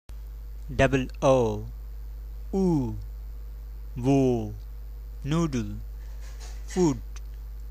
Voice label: pronunciation with oo; nishachor